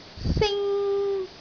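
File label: "sing1", Cantonese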